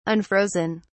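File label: "unfrozen", English, uk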